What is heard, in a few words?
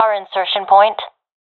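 loc our spawn, professional female; vo; Counter Strike Model Pack; CS:GO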